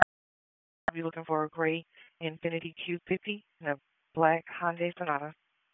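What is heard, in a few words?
Police District Dispatch, p25; Raleigh Police North District Disp; wake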